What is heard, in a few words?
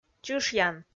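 "чышъян", Komi, female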